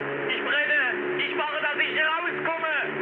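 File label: German on fire mood high, speech; War Thunder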